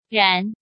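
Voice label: "Rán", Chinese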